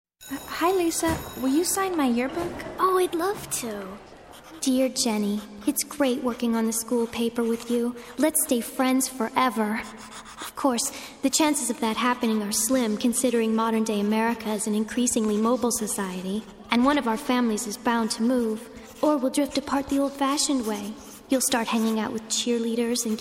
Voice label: commercial, commercial women